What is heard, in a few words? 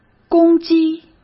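"攻击", Mandarin Chinese; chinese-flashcards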